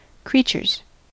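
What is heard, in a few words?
"creatures", American English; pronunciations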